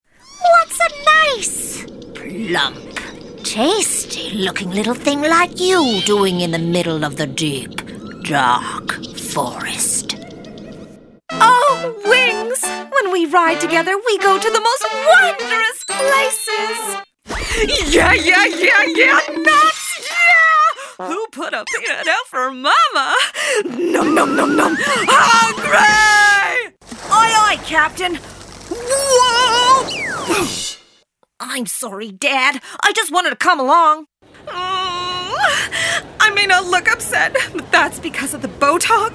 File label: animation, Voice Actor